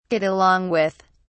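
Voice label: "get along with", British English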